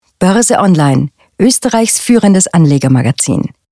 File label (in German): Abbinder; Boerse Online